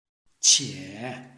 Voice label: "qie3", Chinese